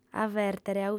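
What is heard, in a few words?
"avèrtere", female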